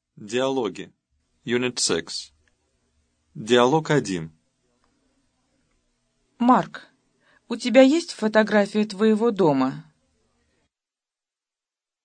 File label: dialog, russian